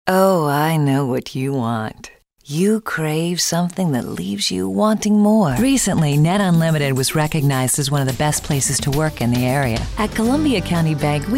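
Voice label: commercial, female voice talent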